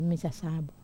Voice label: "masasabu", Wamesa